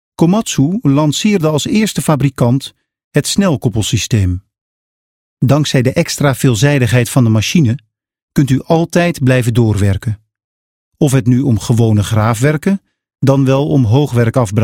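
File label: Dutch, male, corporate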